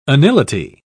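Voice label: "anility", British English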